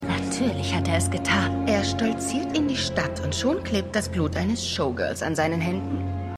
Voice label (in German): Gerichtszuschauerin; Schmigadoon